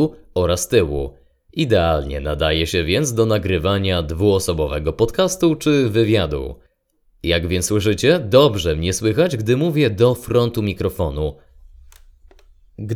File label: axis voice sample bidirectional